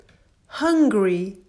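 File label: "Hungry", English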